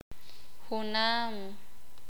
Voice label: "parejo", sakapulteko